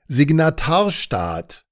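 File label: "Signatarstaat", German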